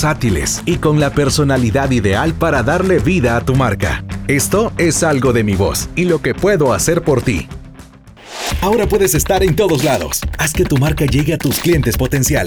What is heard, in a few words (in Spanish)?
voz marketing